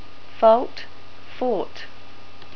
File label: fault, NZ English